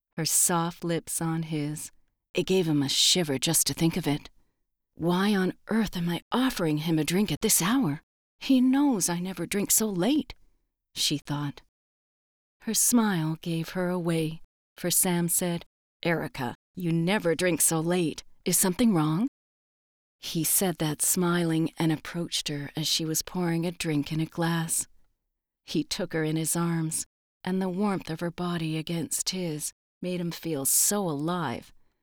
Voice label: Romance Audiobook